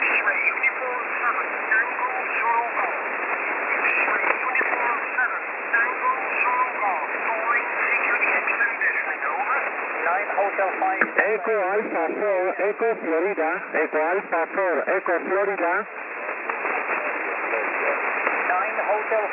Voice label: qso cq; 14220khz

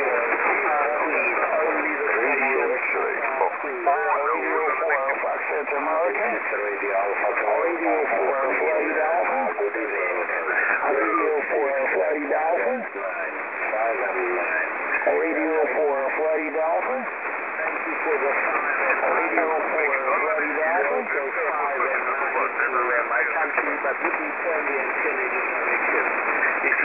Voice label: QSO QRM 14205 kHz

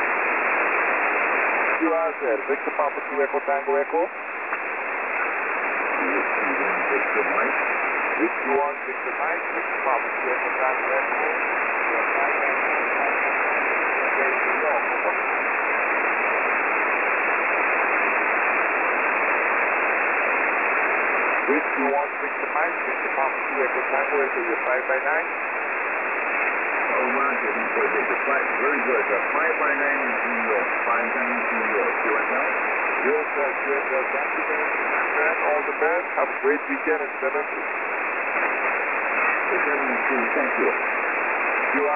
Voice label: QSO QRN 21315 kHz

